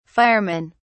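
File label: "firemen", British English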